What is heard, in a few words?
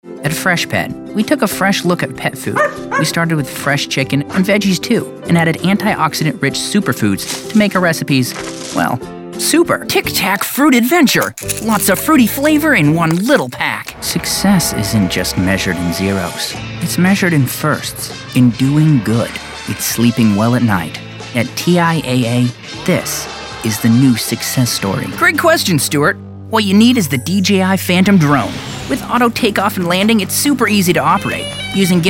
COMMERCIAL, voice